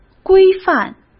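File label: "规范", Mandarin Chinese; chinese-flashcards; hsk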